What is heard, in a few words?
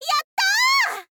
"yattaa", girl